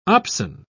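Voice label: "opsin", British English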